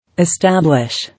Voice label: "Establish", English